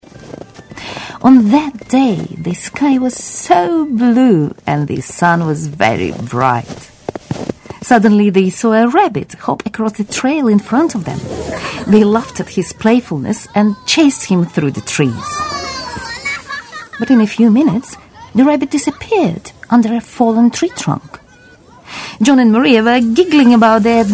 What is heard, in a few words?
female russian and ukrainian english with russian accent audiobook